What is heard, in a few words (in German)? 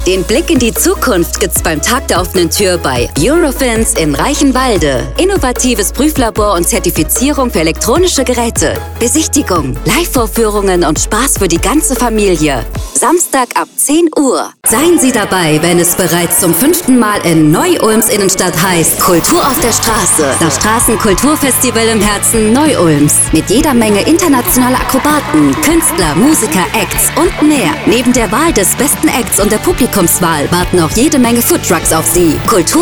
Radiospots